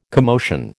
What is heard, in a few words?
"commotion", American